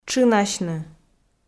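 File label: "тшынасьны", Komi, female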